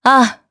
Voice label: Vox, jp; Seria; kings raid

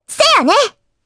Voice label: Vox, jp; Requina; kingsraid

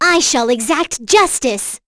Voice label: vox, en; kingsraid; voices heroes

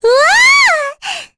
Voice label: Happy, kr, voices; heroes; Rehartna; kings raid

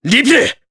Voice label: Vox, jp; Riheet; kingsraid

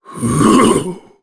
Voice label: Vox Casting, jp; Ricardo; kingsraid

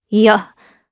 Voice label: Vox Deny jp; Chrisha; kingsraid

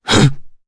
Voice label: Landing, jp; Crow; Kings Raid

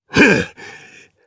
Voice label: Landing, jp; Phillop; kingsraid

